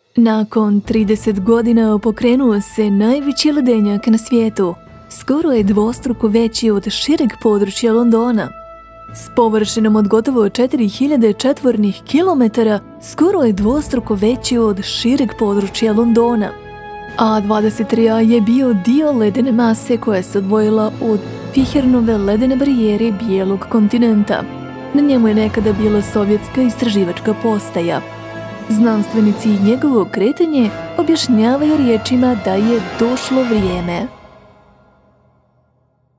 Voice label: croatian promos; Serbian native voice-over actor from Belgrade